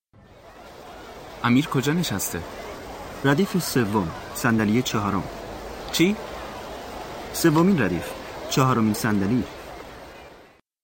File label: Dialogue lesson Farsi